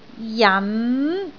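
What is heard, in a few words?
"jan2", Cantonese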